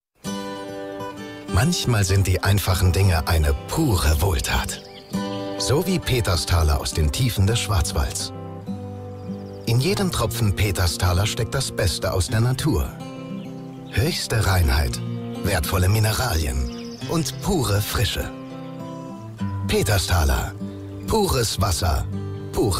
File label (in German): Werbung Radiospot; Peterstaler